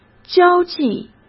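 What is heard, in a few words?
"交际", Mandarin Chinese; hsk; chinese-flashcards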